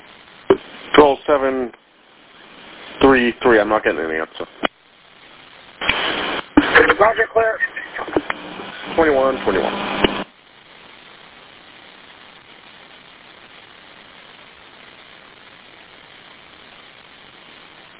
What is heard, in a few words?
Police Radio